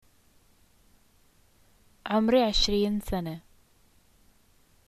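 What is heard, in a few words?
"i am 20", Jordanian Arabic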